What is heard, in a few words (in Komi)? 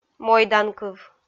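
"мойданкыв", Komi, female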